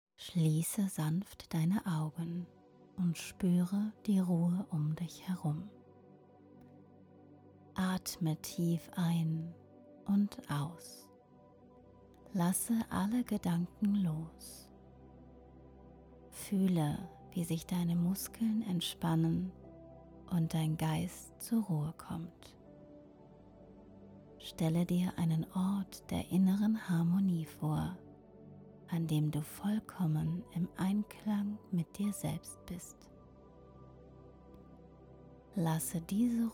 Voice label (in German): Meditation Voice Over Professionell